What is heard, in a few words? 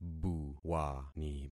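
"buwani", concatenated syllables; Affixing experiment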